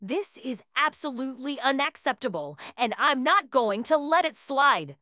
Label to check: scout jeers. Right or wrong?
Wrong